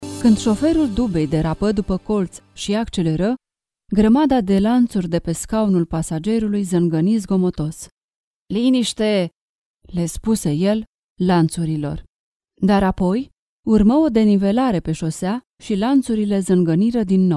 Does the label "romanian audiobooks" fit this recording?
Yes